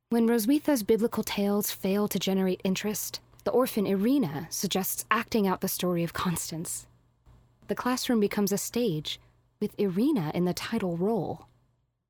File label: Narration